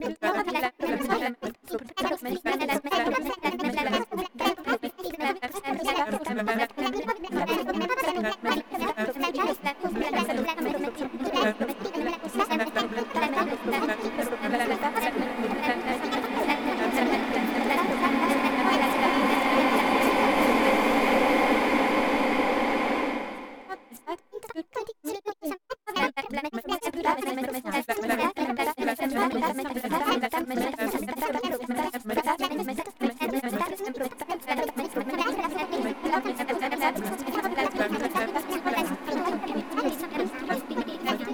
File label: voice reverb